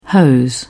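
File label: "hose", British English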